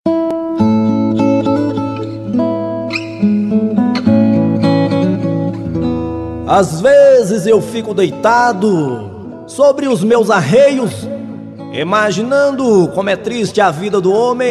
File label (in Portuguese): locutor; salinas mg